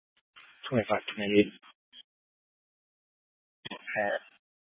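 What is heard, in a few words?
Sheriff Dispatch, Scanner audio recording archive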